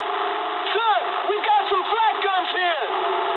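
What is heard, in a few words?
damaged anti-aircraft mood medium, speech English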